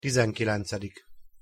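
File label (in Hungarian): "tizenkilencedik", Hungarian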